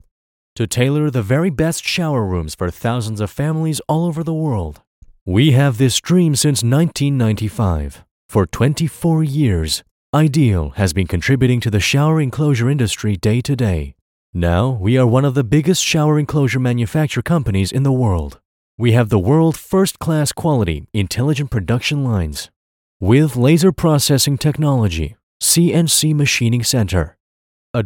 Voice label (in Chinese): 宣传片视频文案 英文